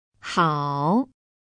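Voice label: "hao", Mandarin Chinese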